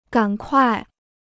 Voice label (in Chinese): "赶快", Chinese